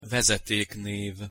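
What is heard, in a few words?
"vezeteknev", Hungarian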